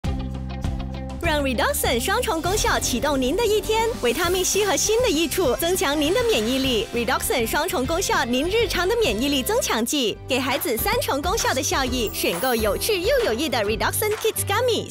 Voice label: Mandarin Announcer, Style: Cheerful, Young & Sweet; Sunsilk